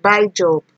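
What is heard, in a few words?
"buy job", Nigerian Pidgin, female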